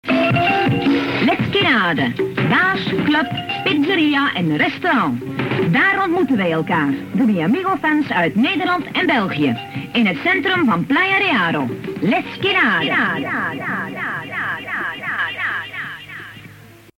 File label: commercial